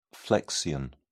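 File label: "flexion", British English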